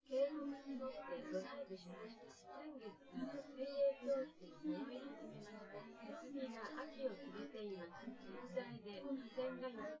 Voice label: female, synth conv, 130ms, 5cm; SiSEC10; underdetermined